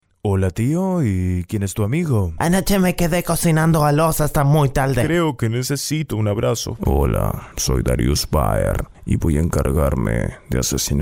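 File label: Spanish Latin American, male, spanish americas voice over talent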